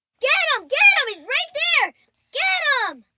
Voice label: Get Him Right There, 1 boy, 10 yrs